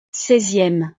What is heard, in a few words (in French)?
"seizieme", frances